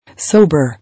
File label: "Sober", English